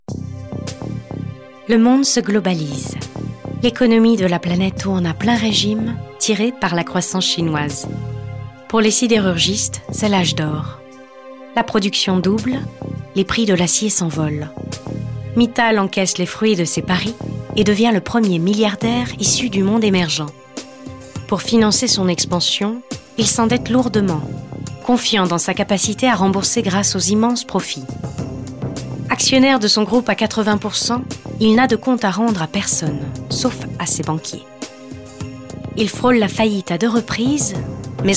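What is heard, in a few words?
female French documentary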